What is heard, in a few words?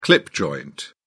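"clip joint", British English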